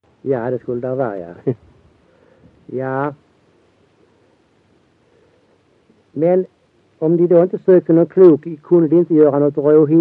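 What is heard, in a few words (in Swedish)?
Össjö Skåne, dialekter, svenska sagor; Folkminnen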